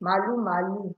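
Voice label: "malumalu", Nigerian Pidgin, female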